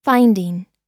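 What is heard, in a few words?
"finding", US English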